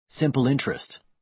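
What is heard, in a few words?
"simple interest", American English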